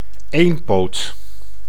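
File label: "eenpoot", Dutch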